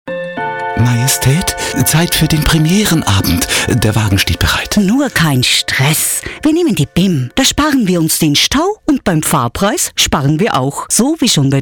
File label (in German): Sprecher Showreel